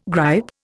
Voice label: "gripe", Indian